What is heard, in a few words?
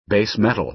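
"base metal", American English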